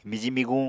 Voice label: "miji-miguñ", Apatani